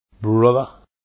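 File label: "brother", English, North Tyneside, Traditional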